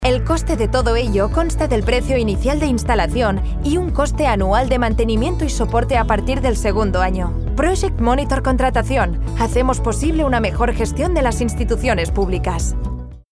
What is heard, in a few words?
female spanish phone comercial; ideasonora